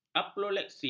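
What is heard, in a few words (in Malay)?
"apopleksi", Malay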